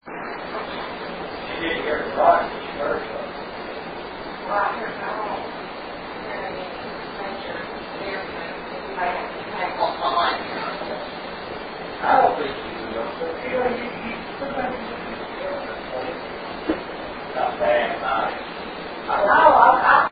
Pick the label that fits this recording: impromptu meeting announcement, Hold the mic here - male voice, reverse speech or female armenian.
Hold the mic here - male voice